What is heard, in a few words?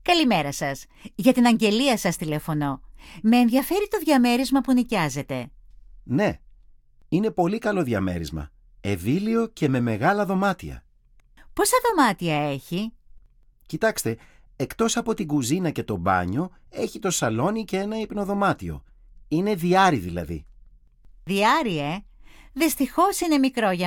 dialog